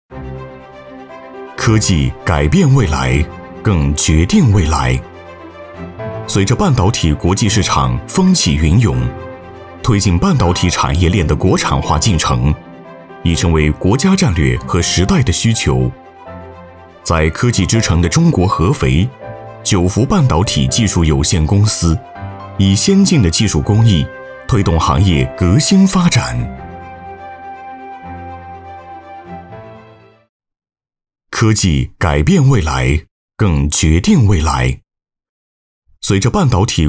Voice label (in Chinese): 男 专题宣传 科技大气磁性; 半导体市场